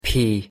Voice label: pronunciation en p